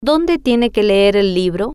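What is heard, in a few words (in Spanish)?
Donde Tiene Que Leer Libro, spanish, female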